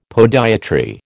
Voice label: "podiatry", American